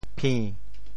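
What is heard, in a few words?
"phi~7", Teochew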